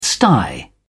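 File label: "sty", English, uk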